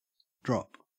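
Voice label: "drop", Australian English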